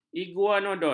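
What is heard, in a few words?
"iguanodon", Malay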